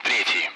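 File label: Speech Sound Russian Common Allied Flight; DCS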